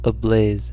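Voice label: "ablaze" US English; pronunciation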